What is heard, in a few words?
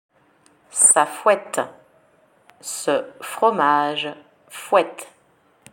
"ça fouette" French; slang words